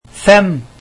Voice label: five, norwegian

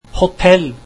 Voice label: hotel, norwegian

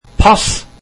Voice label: passport, norwegian

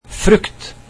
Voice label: fruits, norwegian

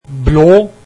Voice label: blue, norwegian

